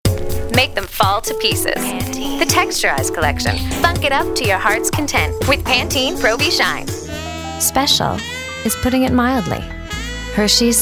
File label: Commercial Reel